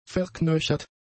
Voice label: "verknöchert", German; adjectives

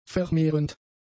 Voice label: "vermehrend", German; adjectives

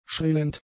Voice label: "schrillend", German; adjectives

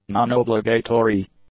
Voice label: "nonobligatory", english; adjectives

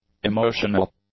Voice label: "emotional", English; adjectives

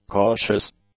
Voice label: "cautious", English; adjectives

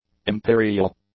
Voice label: "imperial", English; adjectives

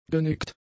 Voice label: "genickt", German; adjectives

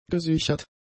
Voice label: "gesichert", German; adjectives

